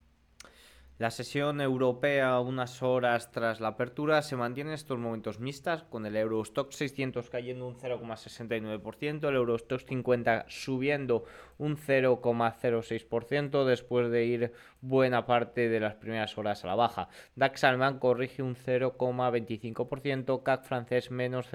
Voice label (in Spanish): radio; europea mixta con los rendimientos al alza y resaca de los resultados